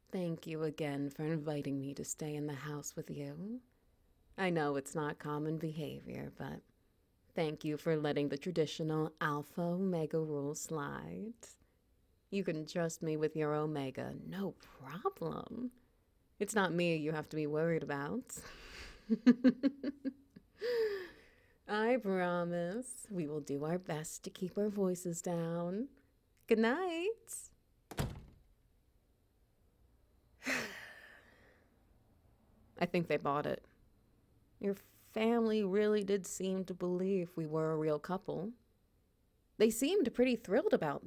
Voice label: Little Spoon Ambience SFX Wet Noises Sleepaid

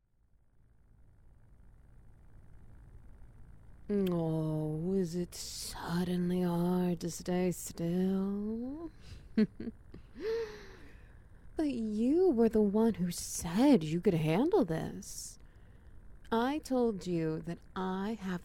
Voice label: Ambience Grinding; Challenge Failed Successfully

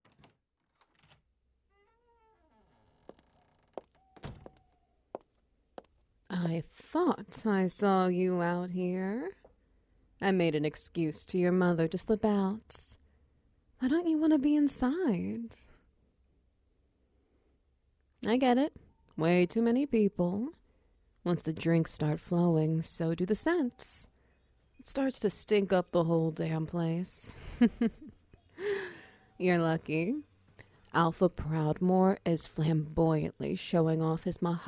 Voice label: Ambience SFX Wet Noises; Our Secret

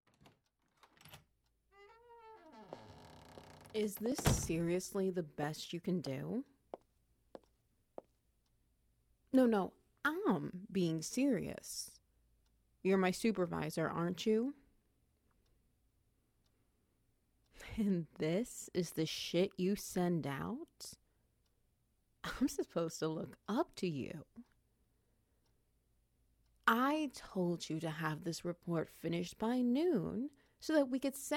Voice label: Ambience SFX Wet Noise

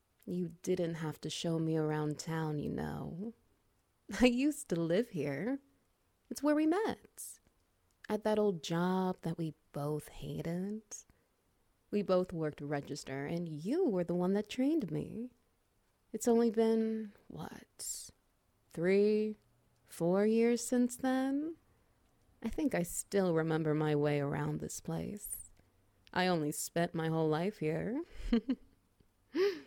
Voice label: Ambience Wet Noises; Homecoming

